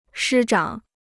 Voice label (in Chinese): "师长", Chinese